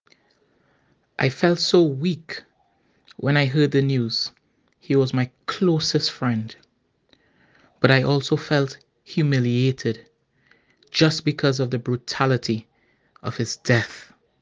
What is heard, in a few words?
testimonial